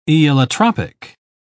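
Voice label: "aeolotropic", British English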